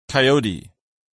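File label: "coyote", English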